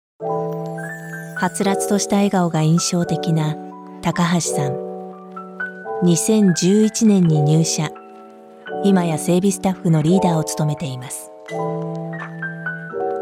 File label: calm